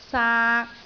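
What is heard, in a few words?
"saak3", Cantonese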